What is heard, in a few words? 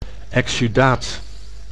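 "exsudaat", Dutch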